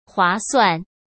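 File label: "划算", Mandarin Chinese; hsk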